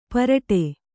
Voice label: "ಪಾರಟ", Kannada